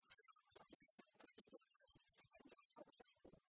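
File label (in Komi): "сора тӧлысьсянь кӧч тӧлысьӧдз студентъяслӧн каникул", Komi, female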